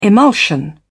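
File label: "emulsion", British English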